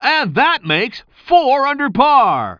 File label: commentator